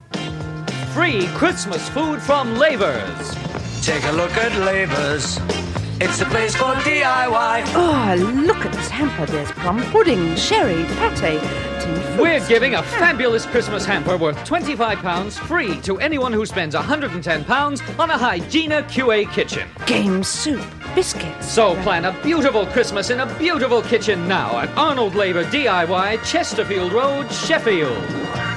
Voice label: Radio Advert, Christmas Hamper Offer; Radio Hallam; Lavers

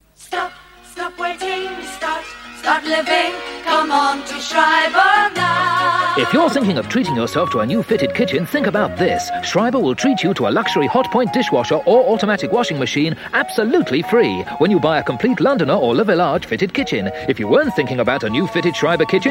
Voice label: Radio Hallam Advert; Schreiber Free Hotpoint

